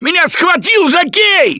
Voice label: grabbed by jockey, left4dead2 russian, Survivor Voice; Gambler

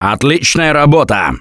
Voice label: Nice Job, left4dead2 russian, Survivor Voice

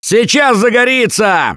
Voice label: warn spitter incoming, left4dead2 russian, Survivor Voice; Gambler

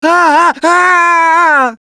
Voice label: Incapacitated Injury, left4dead2 russian, Survivor Voice; Gambler

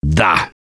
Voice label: Yes, left4dead2 russian, Survivor Voice; Gambler

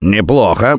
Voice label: Nice Job, left4dead2 russian, Survivor Voice; Gambler

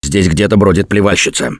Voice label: heard spitter, left4dead2 russian, Survivor Voice; Gambler

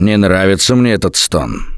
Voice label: heard witch, left4dead2 russian, Survivor Voice; Gambler

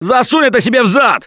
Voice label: Taunt, left4dead2 russian, Survivor Voice

